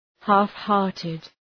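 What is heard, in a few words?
"half-hearted", English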